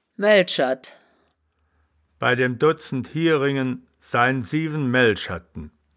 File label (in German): "melcherd", wittlich